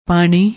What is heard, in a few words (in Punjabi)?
"paani", Punjabi; noun, masculine; water, aqua; liquid; watery substance, drivel, drool; juice, extract; whey; polish, lustre, plating